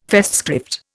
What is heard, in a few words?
"Festschrift", Indian